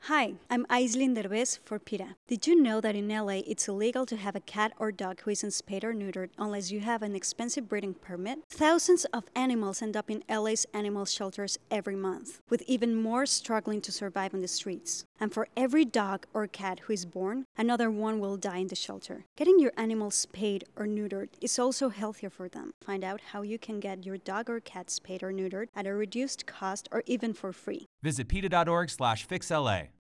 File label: lets fix la radio psa english; aislinn derbez; peta